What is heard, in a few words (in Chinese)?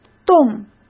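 "洞", Mandarin Chinese; chinese flashcards; hsk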